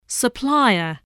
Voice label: "supplier", English